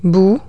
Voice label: mud, French

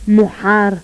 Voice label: voiceless pharyngeal fricative medial, agul

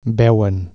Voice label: they see, Catalan

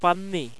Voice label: dental nasal, malayalam

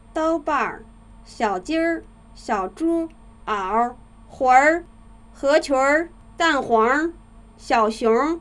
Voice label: rhotic vowels selword, mandarin vowels

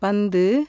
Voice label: "ball", Tamil consonants; JIPA

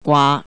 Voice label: melon, Cantonese Consonants

